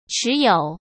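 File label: "持有", Mandarin Chinese; chinese-flashcards; hsk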